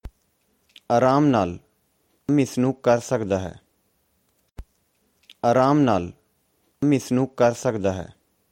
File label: Take it easy, punjabi